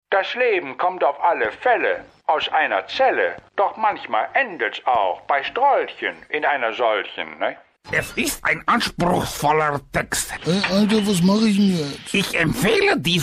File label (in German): Imitationen Collage